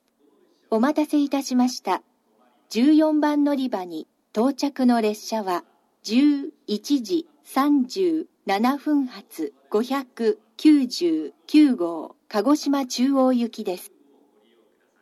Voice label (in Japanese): のりば接近放送, 新幹線ホーム; 14番のりば接近放送（団体599号 鹿児島中央行き）